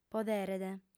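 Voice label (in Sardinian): "poderet", female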